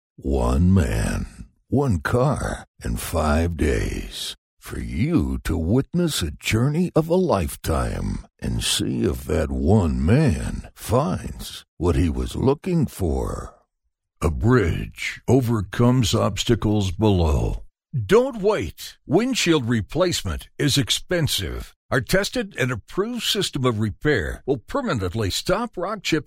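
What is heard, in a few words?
male, American; lektoring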